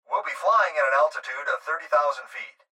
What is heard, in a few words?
Pilot Voice Altitude